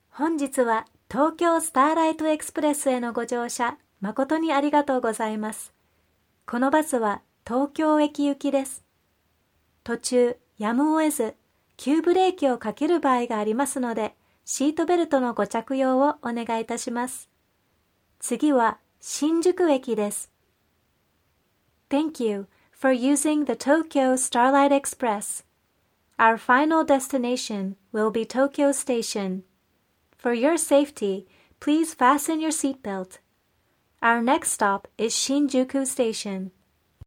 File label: announce